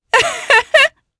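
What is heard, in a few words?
Vox Happy, Japanese; Demia; kingsraid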